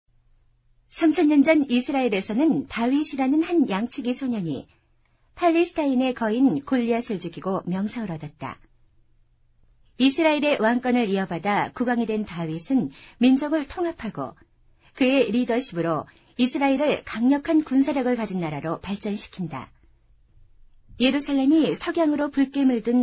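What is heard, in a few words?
Korean, female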